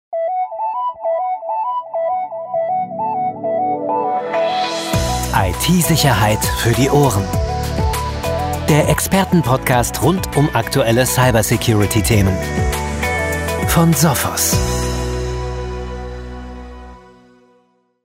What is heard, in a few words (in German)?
Podcast Intro Sprecher; Sophos